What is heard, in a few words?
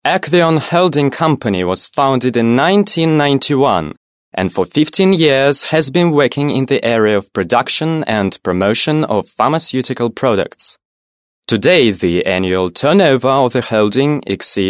british english male